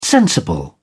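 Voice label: "sensible", British English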